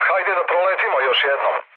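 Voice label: Serbian bomb failure mood low, speech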